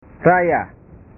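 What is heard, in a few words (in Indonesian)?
"raya", Indonesian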